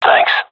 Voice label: thankful; Counter Strike; CS:GO; seal diver